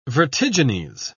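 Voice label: "vertigines", British English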